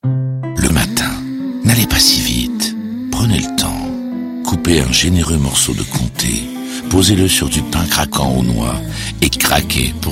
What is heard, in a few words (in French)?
radio chaleureuse; comte